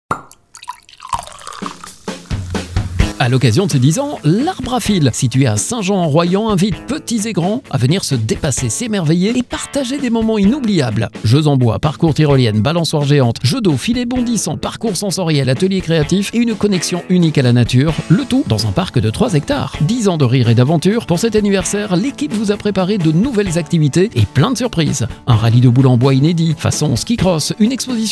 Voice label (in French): SPOT PUB; arbre a fil; zig zag